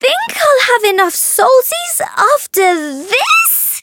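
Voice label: Voice encounter fear; ilulu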